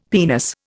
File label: "penis", Indian; Dictionary